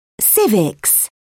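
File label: "civics", British English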